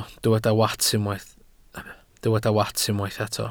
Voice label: "wats", Welsh

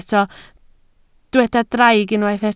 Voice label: "draig", Welsh

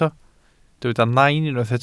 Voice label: "nain", Welsh

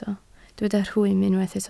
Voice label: "rhwym", Welsh

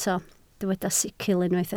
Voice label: "sicl", Welsh

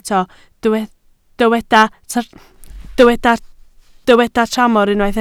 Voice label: "tramor", Welsh

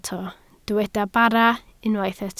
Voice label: "bara", Welsh

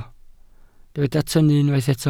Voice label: "tynnu", Welsh

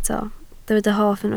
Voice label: "hoff", Welsh

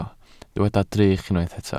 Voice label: "drych", Welsh

